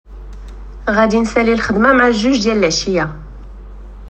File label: Morrocan